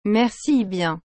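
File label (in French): "Merci bien", French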